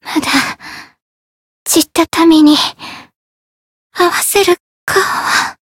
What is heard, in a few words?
voice jp; tsukiha